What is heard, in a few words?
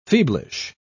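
"feeblish", British English, dictionary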